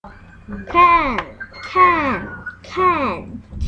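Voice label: "can", spoken word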